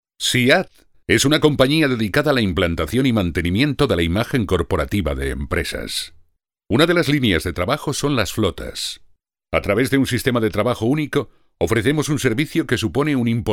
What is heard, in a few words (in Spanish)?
narración